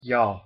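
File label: "ㅕ", Korean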